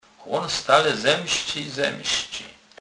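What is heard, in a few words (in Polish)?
"zemscec", Kurpie dialect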